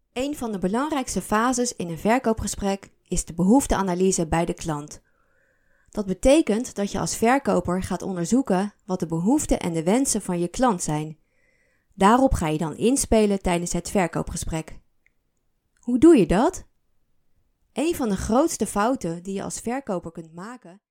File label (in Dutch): Klant cursus, voice